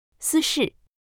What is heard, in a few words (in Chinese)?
"私事", mandarin